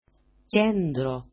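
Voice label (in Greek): "kendro", Greek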